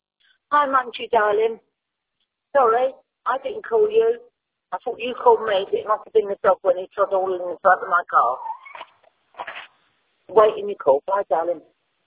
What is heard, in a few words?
voicemail